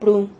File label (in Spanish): "pru", Silabas